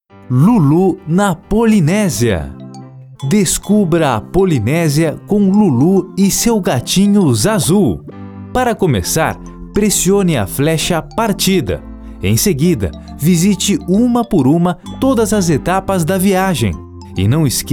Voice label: Portuguese Brazilian audiobooks